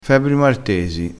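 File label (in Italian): "febbri martesi", vocabolario dialettale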